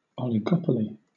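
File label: "oligopoly", British English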